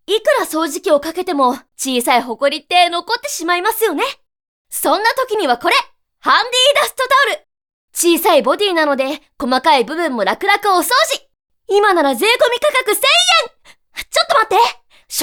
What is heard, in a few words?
girl